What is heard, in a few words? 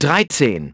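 "thirteen", English; iOS 4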